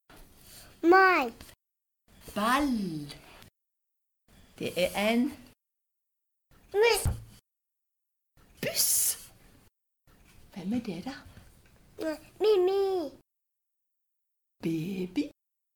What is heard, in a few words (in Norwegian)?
hypernasalitet